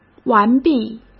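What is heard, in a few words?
"完毕", Mandarin Chinese; chinese-flashcards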